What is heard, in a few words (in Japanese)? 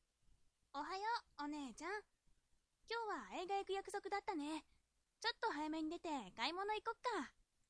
josei